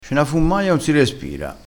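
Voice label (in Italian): "fummaia", vocabolario dialettale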